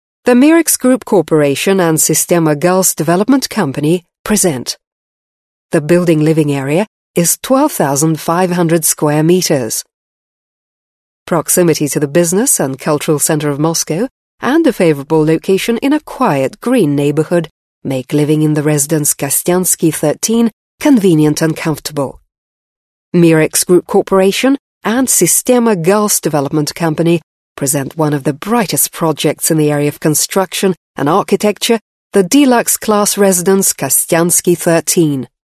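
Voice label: British; uk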